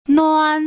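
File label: "nuan", Putonghua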